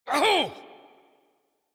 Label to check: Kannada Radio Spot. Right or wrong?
Wrong